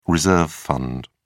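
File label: "reserve fund", British English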